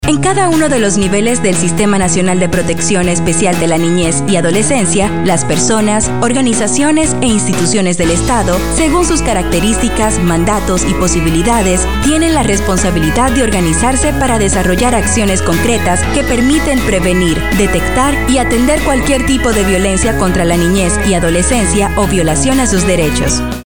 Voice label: Spanish